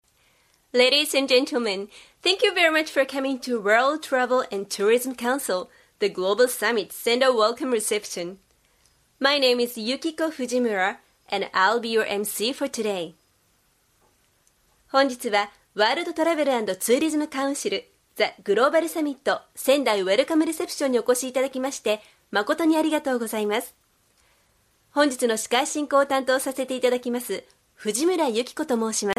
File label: Japanese Reception MC F